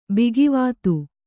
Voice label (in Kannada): "ಭಾಗವತ", Kannada